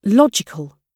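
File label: "logical", British English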